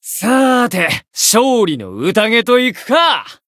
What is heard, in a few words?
win, jp; shigure